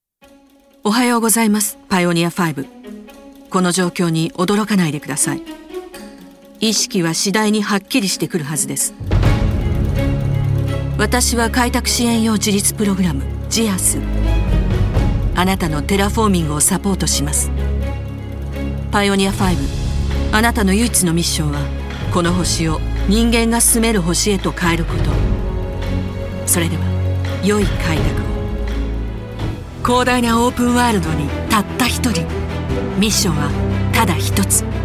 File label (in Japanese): ゲーム予告